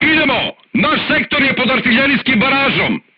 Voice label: voice message art shooting warning, crew tanks serbian